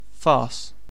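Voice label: "farce", British English; pronunciations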